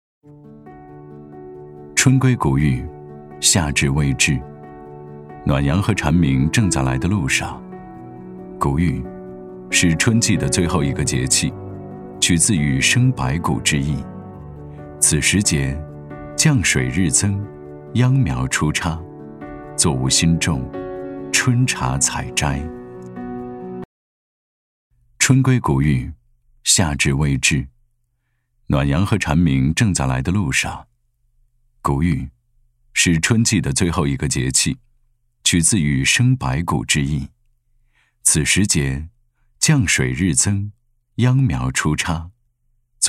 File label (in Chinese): 男 谷雨节气 保电; 文旅配音; 文旅节气样音